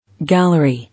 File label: "Gallery", English